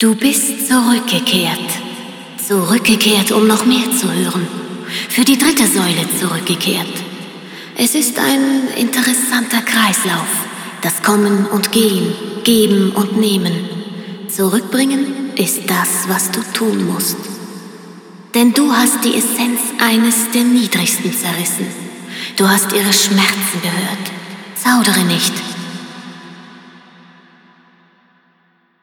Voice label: Speech ger; Yeesha

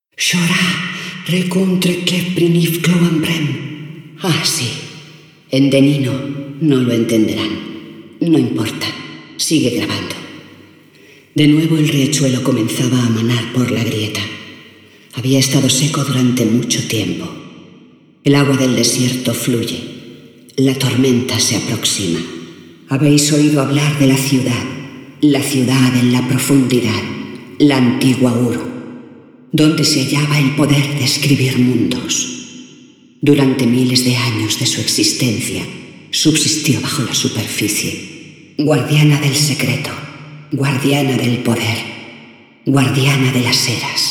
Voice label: Intro Sp, spa; Yeesha; moul

